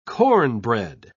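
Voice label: "cornbread", British English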